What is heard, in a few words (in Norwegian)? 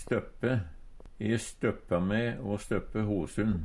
støppe, Numedalsmål